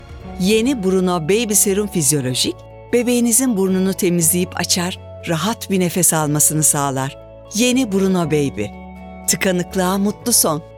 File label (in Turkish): sicak sakin; sundu sunar